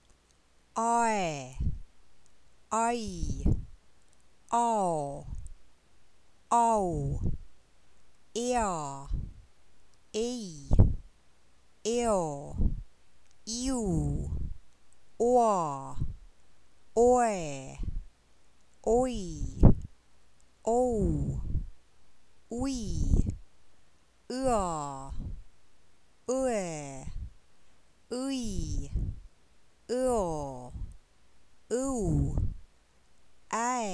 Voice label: diphthongs, Estonian